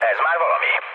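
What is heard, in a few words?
Hungarian trick mood low, speech; War Thunder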